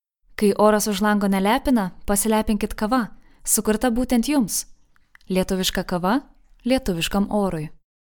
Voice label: Voice over LT Calm, Lithuanian speaker, soft, calm, professional